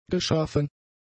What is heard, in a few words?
"geschaffen", German; adjectives